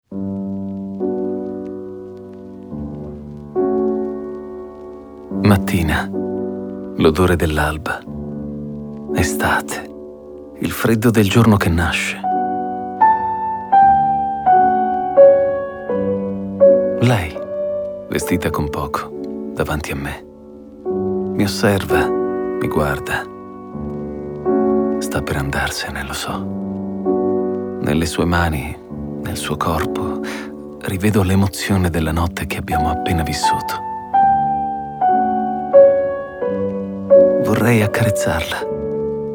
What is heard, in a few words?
Intense Sensual